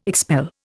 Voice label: "expel", Indian